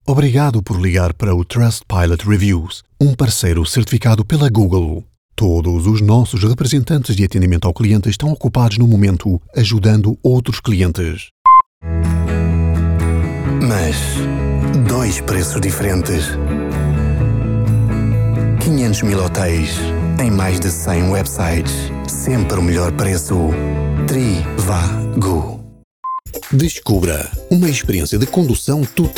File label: male portuguese compilation; portuguese european